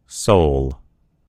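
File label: "sole", American English; gcompris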